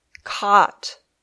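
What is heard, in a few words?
"cot", English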